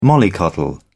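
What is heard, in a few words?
"mollycoddle", British English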